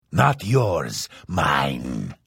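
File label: Thief, Vo; Morrowind GOTY GOG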